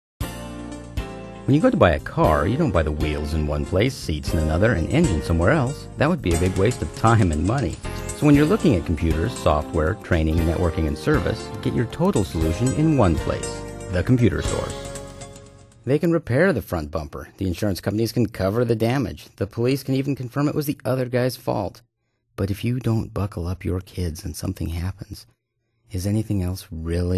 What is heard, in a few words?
Commercial